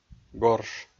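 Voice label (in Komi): "горш", Komi, male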